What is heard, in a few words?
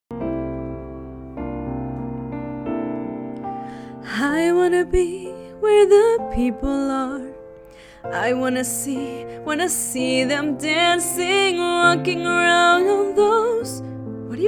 female spanish mexican english with mexican accent; disney